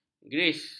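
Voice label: "gris", Malay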